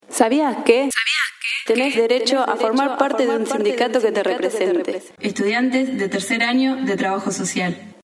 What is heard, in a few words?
spot; derechos del trabajador